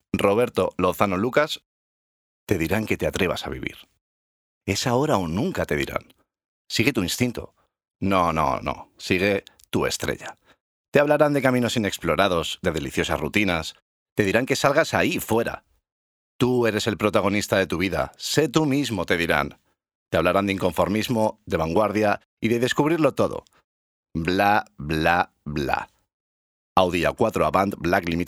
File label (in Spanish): Demo Publicidad